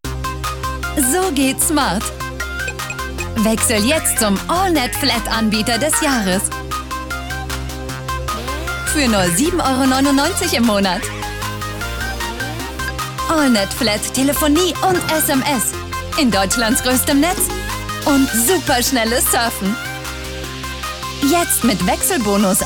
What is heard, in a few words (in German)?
Dynamisch